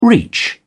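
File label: "reach", British English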